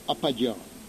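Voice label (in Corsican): "appaghja", Corsican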